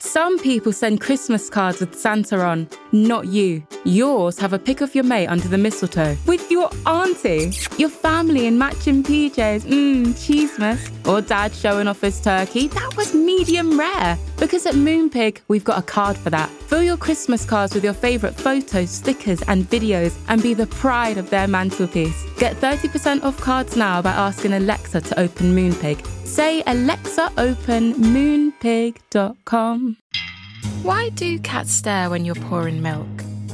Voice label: Commercials, female

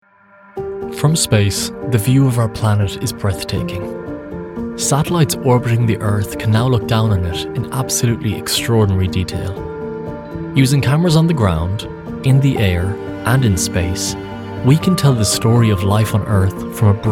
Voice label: Narration; 20s-30s; Male; Irish

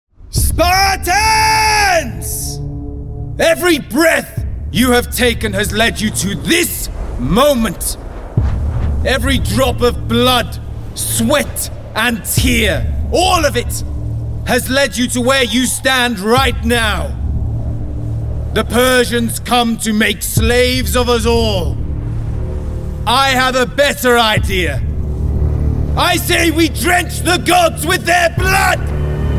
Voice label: Computer Games, regional accents

